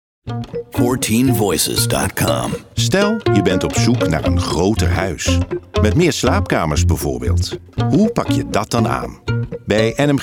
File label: Commercial